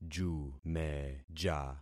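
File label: "jumeja", concatenated syllables; Affixing experiment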